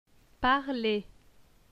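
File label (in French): "Parler", French; phonetique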